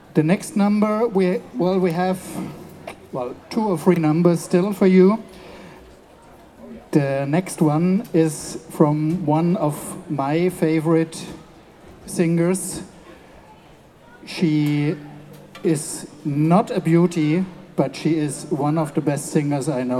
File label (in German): Ansage